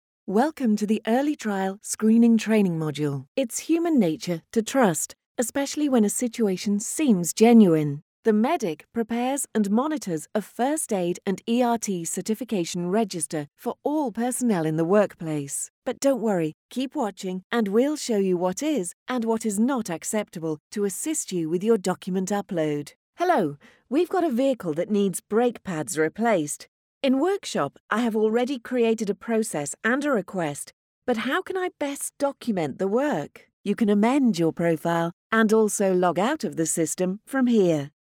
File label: English British e-learning; Eine britische Sprecherin mit anspruchsvollem, aber dennoch persönlichem Stil.